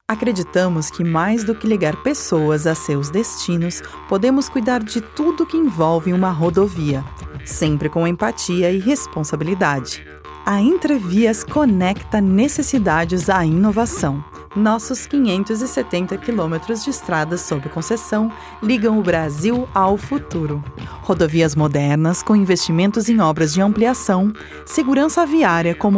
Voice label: Portuguese, Portuguese-Brazilian, Female, Studio, 20s-40s